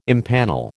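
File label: "impanel", American English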